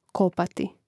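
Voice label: "kopati", Croatian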